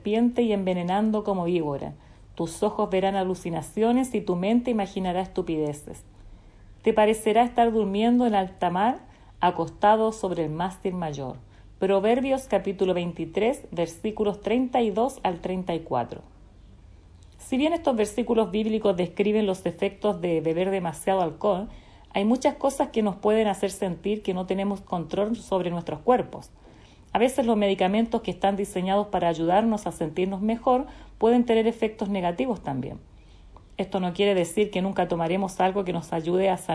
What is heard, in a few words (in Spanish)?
devocional